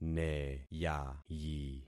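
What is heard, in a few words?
"neyayi", concatenated syllables; Affixing experiment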